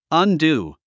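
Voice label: "undo", English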